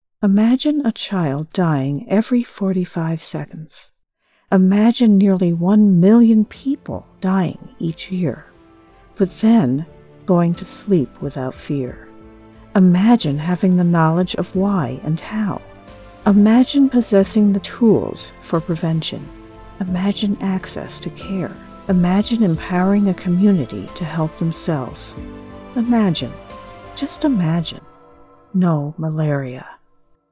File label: TV spot